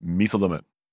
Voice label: neutral short; VO soulAlchemist